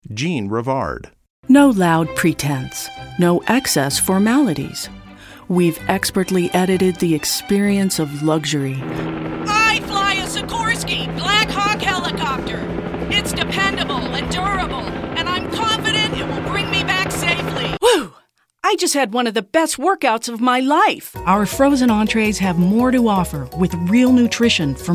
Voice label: commercial